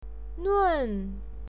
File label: "nun4", pinyin